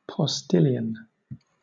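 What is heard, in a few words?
"postilion", British English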